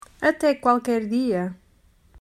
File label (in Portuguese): "Até Qualquer Dia", Portuguese